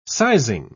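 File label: "sizing", British English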